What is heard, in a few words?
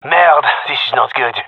scared, gendermerie male